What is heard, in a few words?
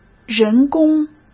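"人工", Mandarin Chinese; chinese flashcards; hsk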